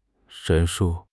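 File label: "陈述", Chinese; HSK6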